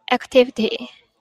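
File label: "activity", Taiwanese, female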